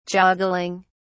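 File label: "joggling", British English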